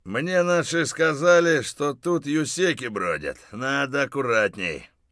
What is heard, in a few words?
mutter, voice; Escape from Tarkov